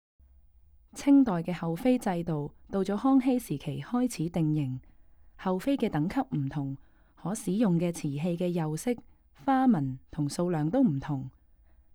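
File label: Cantonese, audio guide; g3-clay-to-treasure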